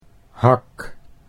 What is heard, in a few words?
"hak", Dutch